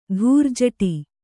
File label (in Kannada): "ಧೂರ್ಜಟಿ", Kannada